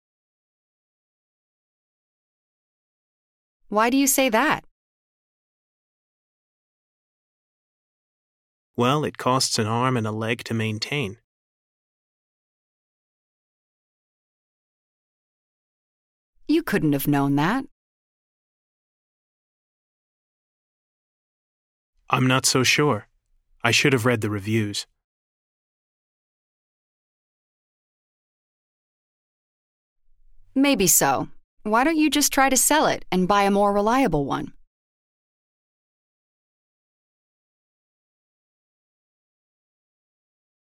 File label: Pronunciation Practice; Connectivity 3